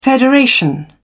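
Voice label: "federation", British English, dictionary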